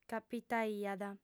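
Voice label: "capitaiat", female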